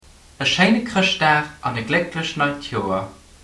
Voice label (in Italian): lussemburghese